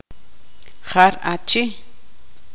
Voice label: tzutujil

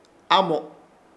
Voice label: "AMO", Ishir Chamacoco; dormir

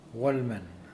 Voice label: Mokilese one man

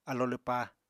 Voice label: "alo lwpá", Apatani

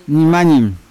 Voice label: "nimanyim" fly, Yawa

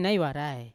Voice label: "nai warai", Yawa; above; unat

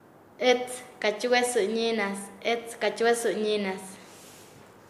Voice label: Nasa Yuwe; guia; paez

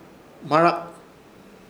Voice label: "Hmarak" huevo, Ishir Chamacoco

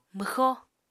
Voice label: "mwxó", Apatani

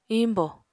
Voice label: "iñbò", Apatani

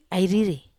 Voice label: "ai riri", Wamesa

